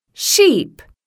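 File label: "sheep", English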